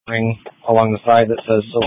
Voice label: Sheriff Dispatch